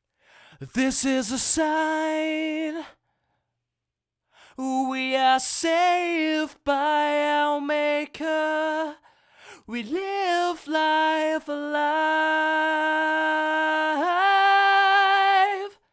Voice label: ch vox unproc